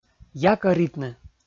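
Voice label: "якӧритны", Komi, male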